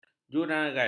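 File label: "juragan", Malay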